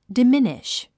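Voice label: "diminish", English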